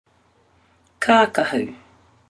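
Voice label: "kakahu", Māori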